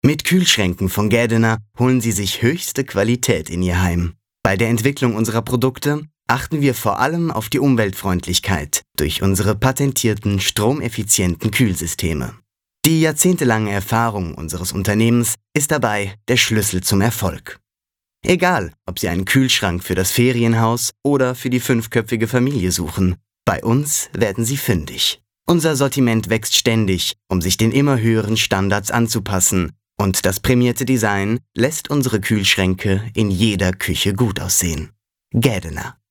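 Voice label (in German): werbung, deutsch männer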